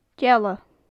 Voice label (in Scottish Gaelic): "deala", gaelic; leech; dealachan, criodhairean; Faclan Nàdair